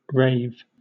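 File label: "rave", British English; pronunciations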